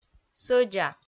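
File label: "сӧдза", Komi, female; audio dict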